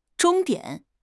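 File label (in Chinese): "终点", Chinese; HSK; HSK6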